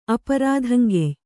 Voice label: "ಅಪರಾಧಂಗೆಯ್", Kannada